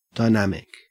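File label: "dynamic", Australian English; pronunciation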